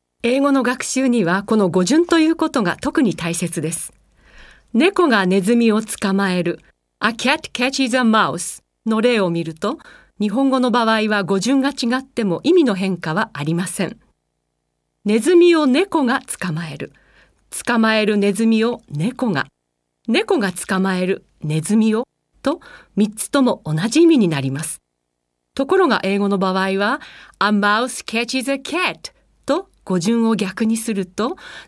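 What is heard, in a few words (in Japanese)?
解説ナレーション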